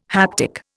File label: "haptic", Indian